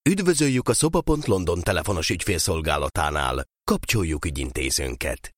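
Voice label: Hungarian, male, IVR; voice over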